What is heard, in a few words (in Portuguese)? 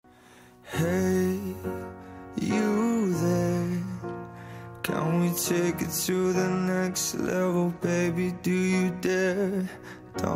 dia dos namorados especial, voz feminina; pessoa especial